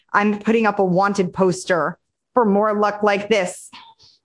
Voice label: happy, speech; fertig classic games